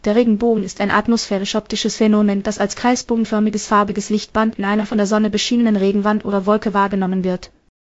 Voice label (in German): speaker, de DE; piper-voices